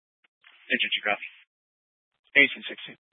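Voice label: Fire Dispatch, scanner audio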